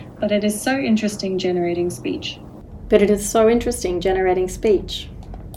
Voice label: generating speech AI, real; language forensics